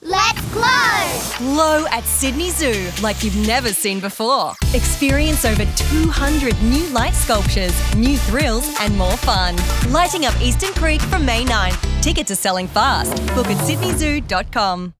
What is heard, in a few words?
Announce; Sydney Zoo Glow 15; glow campaign